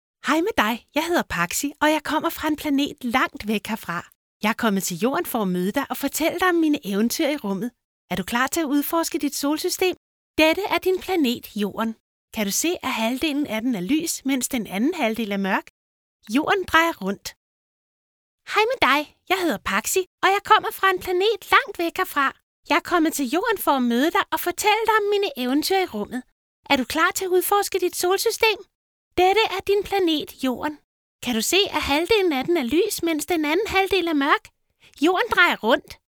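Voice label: danish female character, voice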